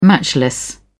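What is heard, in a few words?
"matchless", British English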